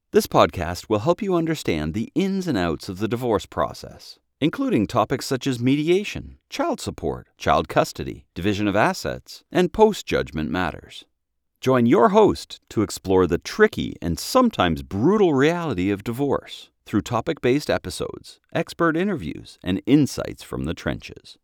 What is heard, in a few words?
Podcast